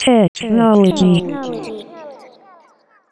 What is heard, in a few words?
"technology", robotic vox; Zero-G Club Techno